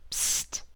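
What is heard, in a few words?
"psst", US English; pronunciations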